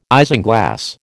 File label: "isinglass", American